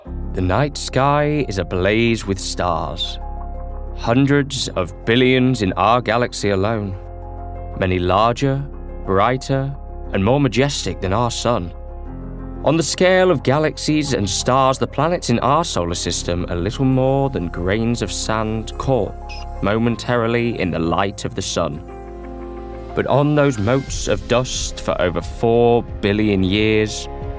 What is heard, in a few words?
Documentary